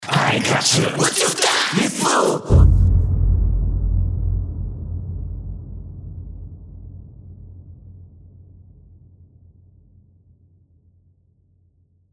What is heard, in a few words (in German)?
horror, Horrorstimme